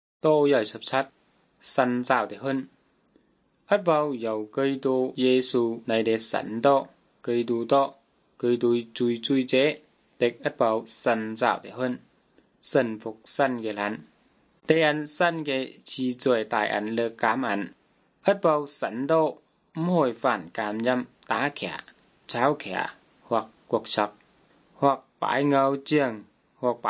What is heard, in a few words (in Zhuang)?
good news zhuang nanning fushu